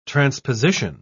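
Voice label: "transposition", British English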